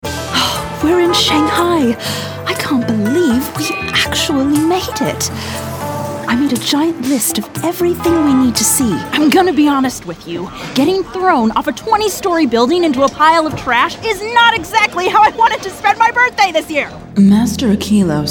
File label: Animation